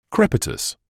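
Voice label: "crepitus", British English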